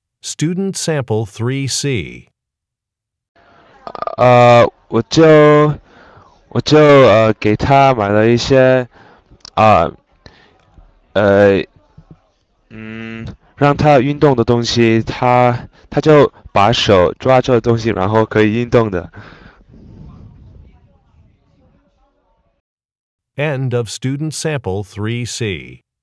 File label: chinese conversation